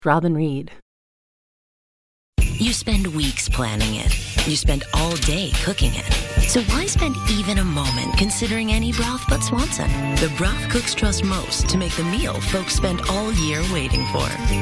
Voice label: commercial; Innovative LA